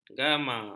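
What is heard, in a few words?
"gama", Malay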